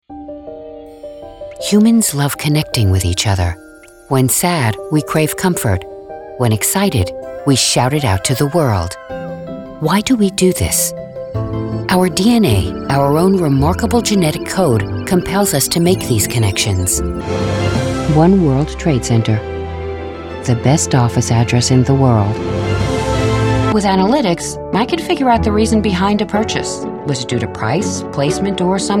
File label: Corporate Narration Brand Identity